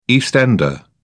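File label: "east ender", British English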